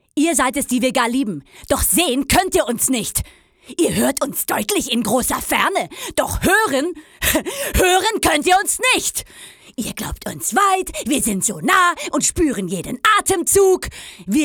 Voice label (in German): aggressiv, frauen